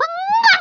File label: "Dragonpunch", Speech, Dutch; International; 11khz; CyberMycha Extra